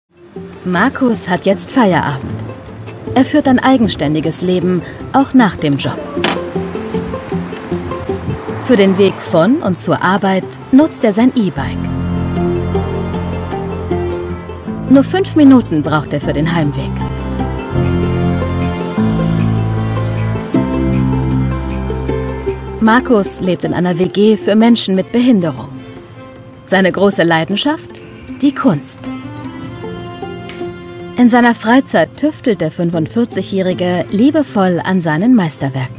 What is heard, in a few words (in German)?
doku; 5 sterne fur leo - aufbruch in ein eigenstandiges leben; swr